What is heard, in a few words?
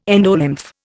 "endolymph", Indian